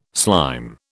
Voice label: "slime", British